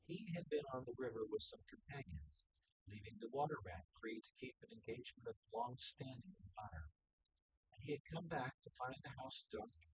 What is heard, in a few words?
male synthconv; SiSEC08; SiSEC underdetermined